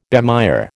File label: "bemire", American